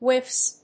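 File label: "whiffs", English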